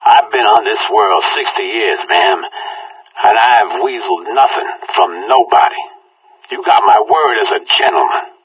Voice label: conversation